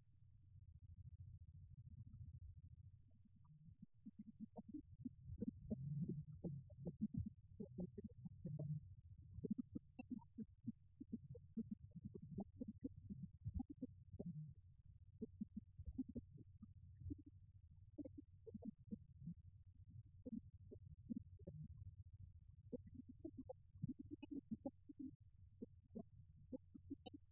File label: radio banter; The Clifford Ball